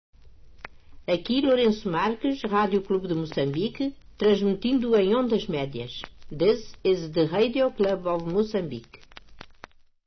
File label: Stn ID MW; early 60s